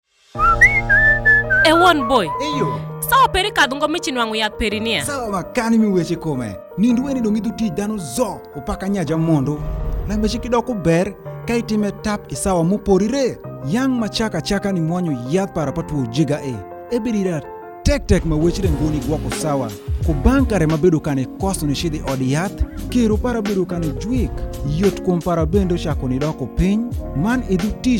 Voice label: Time Up HIV Spot ART Adherence Challenges Alur; radio spot

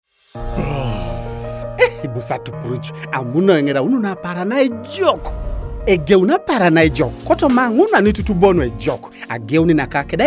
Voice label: HIV Spot Ateso, radio spot; Viral Load Suppression

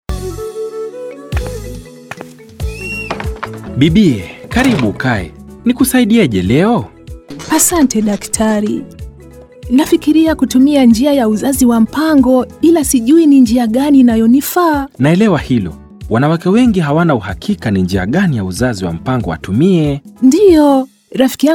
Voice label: IUD Health Worker Spot Swahili; radio spots

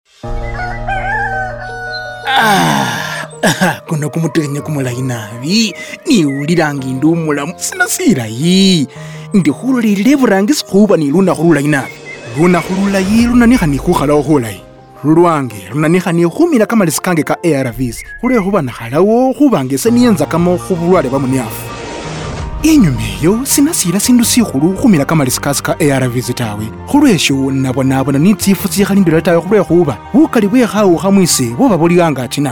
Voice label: HIV Spot Lumasaba, radio spot; Viral Load Suppression

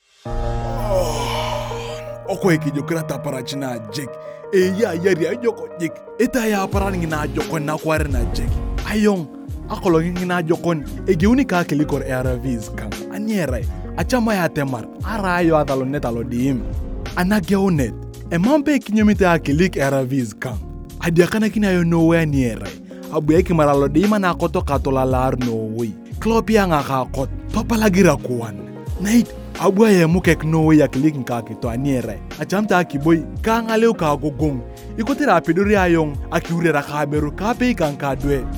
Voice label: HIV Spot Karamajong; Viral Load Suppression; radio spot

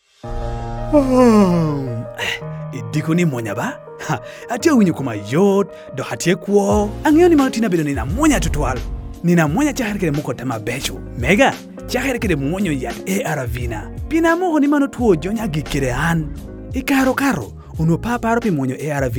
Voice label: HIV Spot Langi; Viral Load Suppression; radio spot

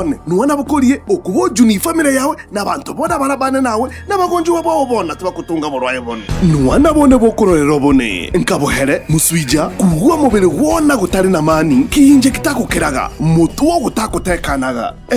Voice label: MPOX Narrator LUBWISI, radio spots; The MPOX Campaign Safe Circles

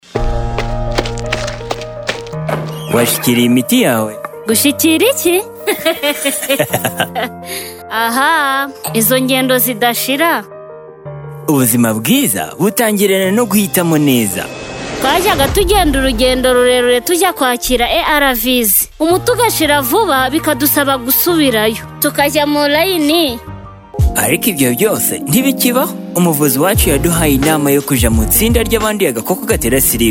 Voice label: HIV Spot Rufumbira, radio spot

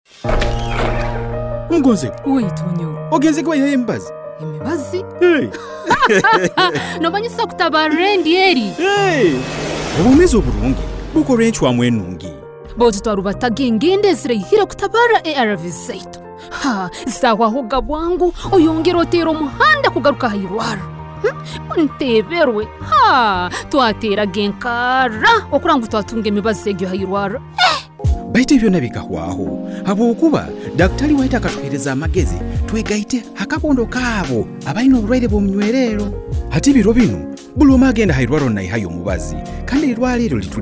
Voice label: Time Up HIV Spot DSD Runyoro Rutooro; radio spot

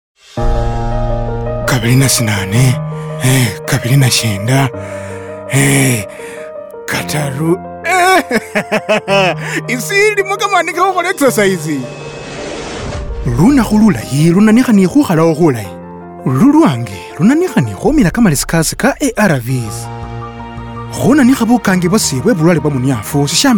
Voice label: Time Up HIV Spot New on Treatment Lumasaba

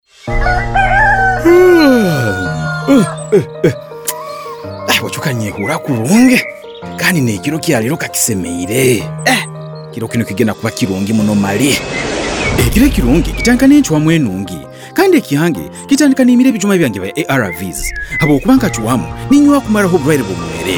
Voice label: HIV Spot Runyoro Rutooro; Viral Load Suppression; radio spot

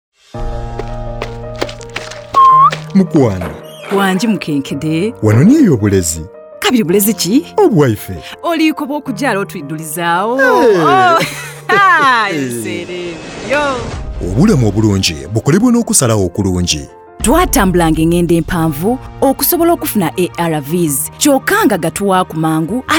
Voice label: HIV Spot Lusoga, radio spot

